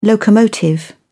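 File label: "locomotive", English, British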